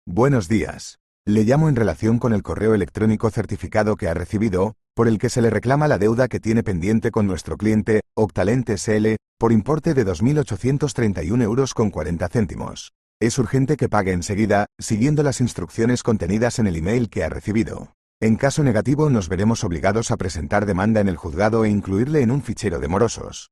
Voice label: audio call